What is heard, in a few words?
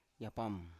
"yapam" to suck, Biak